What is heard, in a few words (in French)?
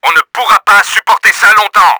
HEALTH CRITICAL, french; Battle Engine Aquila